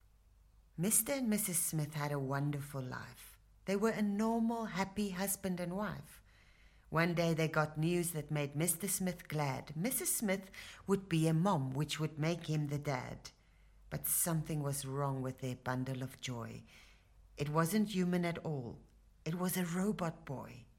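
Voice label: South African English, Narrative; GoLocalise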